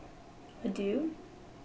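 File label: "adieu", Canadian, female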